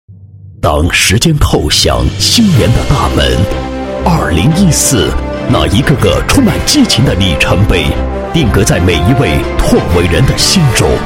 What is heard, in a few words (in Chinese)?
男 颁奖 颁奖年会, 国语男声配音